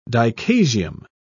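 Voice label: "dichasium", British English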